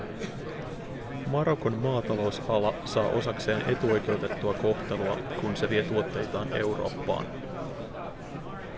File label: babble FIN